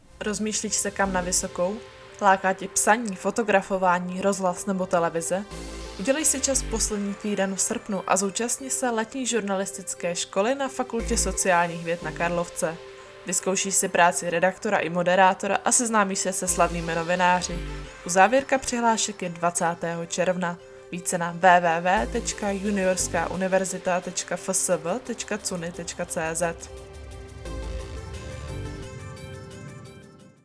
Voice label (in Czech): nahrávka reklama